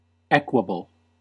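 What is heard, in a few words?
"equable", US English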